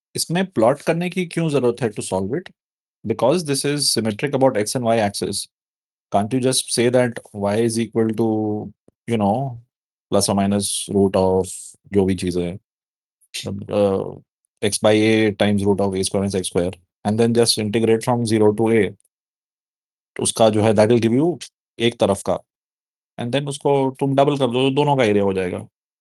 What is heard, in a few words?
voice message; area 2